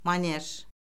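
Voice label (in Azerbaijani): "manej", Azerbaijani; Orfoqrafiya Lüğəti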